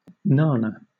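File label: "nana", English, British accent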